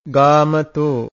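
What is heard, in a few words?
"gāmato", Pali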